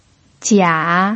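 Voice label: "jia" third tone, pinyin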